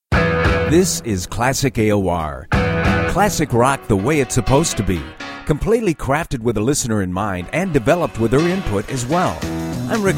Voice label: Format Promos